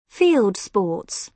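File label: "field sports", British English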